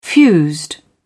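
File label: "fused", British English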